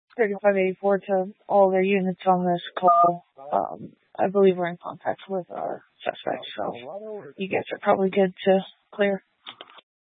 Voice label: Police Dispatch

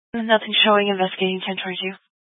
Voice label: Fire Dispatch, Scanner audio recording

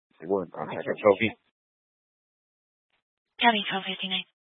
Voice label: Police Dispatch, scanner; Police 1 Dispatch

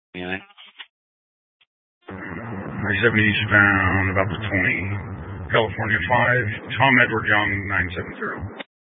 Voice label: Mesa County Sheriff Dispatch

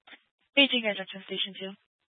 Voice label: Fire Dispatch

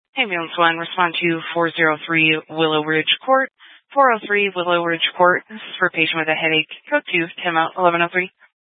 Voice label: Fire Dispatch, Scanner audio recording

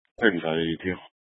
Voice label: Police 1 Dispatch

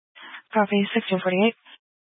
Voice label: Grand Junction Fire Department Dispatch

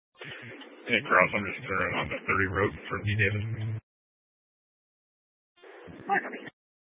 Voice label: Sheriff Dispatch, scanner; Mesa County

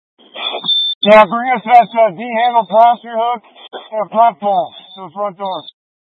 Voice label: Incident Tac 1, scanner

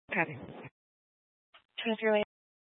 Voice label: Police Dispatch

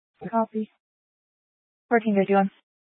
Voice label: Police Department Dispatch

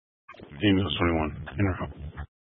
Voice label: Fire Dispatch, scanner

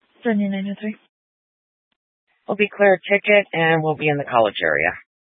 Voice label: Police Department Dispatch, scanner

